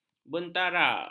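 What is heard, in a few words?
"bentara", Malay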